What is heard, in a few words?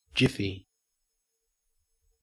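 "jiffy", Australian English; pronunciations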